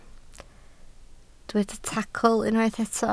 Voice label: "tacl", Welsh

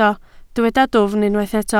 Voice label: "dwfn", Welsh

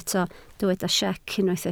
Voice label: "siec", Welsh

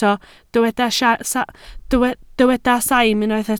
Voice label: "saim", Welsh

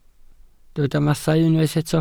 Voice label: "mathau", Welsh

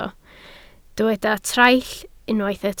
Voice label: "traill", Welsh

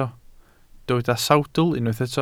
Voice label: "sawdl", Welsh

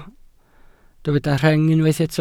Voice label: "rheng", welsh

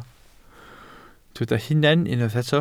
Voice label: "hunan", Welsh

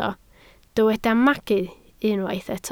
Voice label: "magu", Welsh

